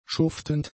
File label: "schuftend", German; adjectives